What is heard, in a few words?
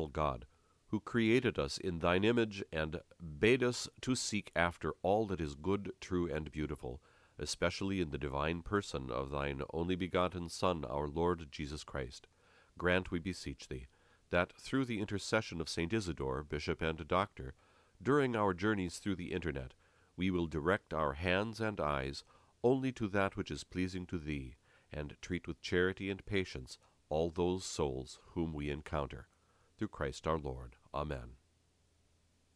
prayer english